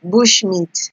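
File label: "bush meat", Nigerian Pidgin, female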